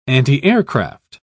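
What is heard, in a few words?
"antiaircraft", English, British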